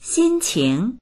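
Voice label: "xin1qing2", Mandarin Chinese; 心情; 心情、気持ち; dictionary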